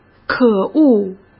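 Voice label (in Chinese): "可恶", Mandarin Chinese; chinese flashcards; hsk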